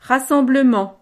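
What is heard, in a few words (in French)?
"rassemblement", French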